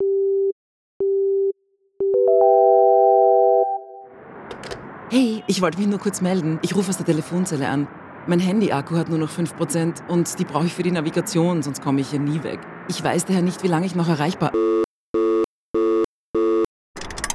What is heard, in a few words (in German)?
Sprecherin; geschichten aus dem hoerer; Literarisch-akustische, interaktive Ausstellung